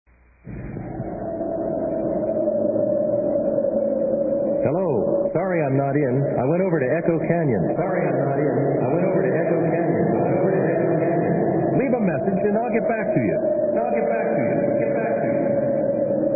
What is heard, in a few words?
Echo, Echo, Echo, Etc